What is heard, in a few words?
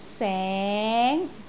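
"seng2", Cantonese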